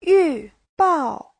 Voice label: "yu4bao4", Chinese